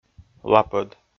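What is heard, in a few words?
"лапӧд", Komi-Zyrian, male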